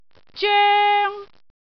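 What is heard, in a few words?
"zoeng1", Cantonese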